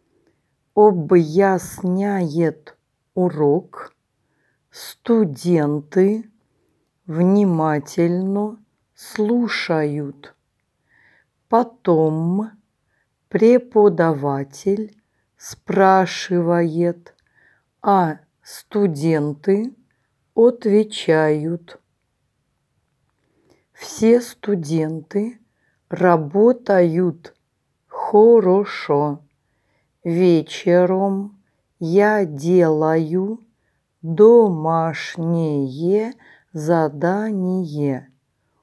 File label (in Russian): Диктант